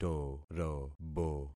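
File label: "torobo", concatenated syllables; Affixing experiment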